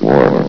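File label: "warm", male synthesized voice; vox; source engine; hl1; video games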